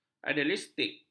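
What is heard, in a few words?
"idealistik", Malay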